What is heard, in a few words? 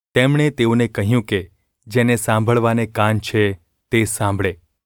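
gu ulb mrk, Gujarati; mrk 4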